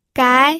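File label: "gai", Mandarin Chinese, second tone; pinyin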